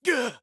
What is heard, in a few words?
Stunned Or Frozen